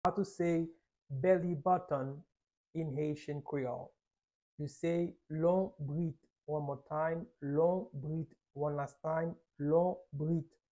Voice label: How to say Belly Button in Haitian Creole Lonbrit pronunciation by a private Haitian tutor